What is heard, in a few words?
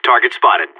radio enemy spotted, seal; player vo